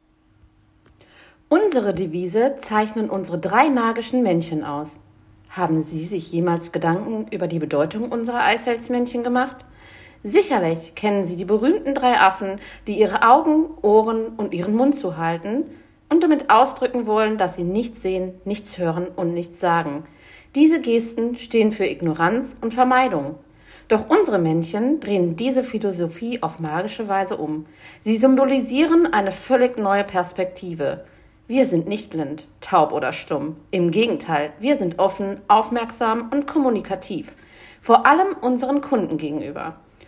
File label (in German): Werbetext Maennchen